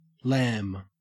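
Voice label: "lam", Australian English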